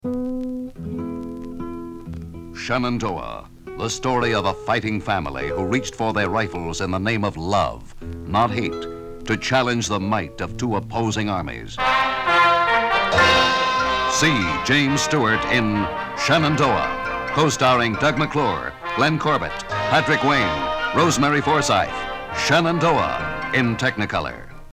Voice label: Radio Spot; Shenandoah